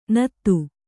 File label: "ನಾದು", Kannada